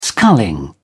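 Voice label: "sculling", English, British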